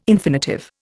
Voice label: "infinitive", Indian